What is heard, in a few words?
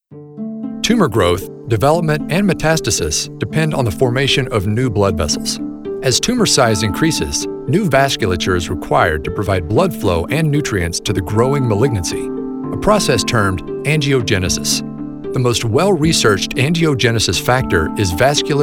Medical Male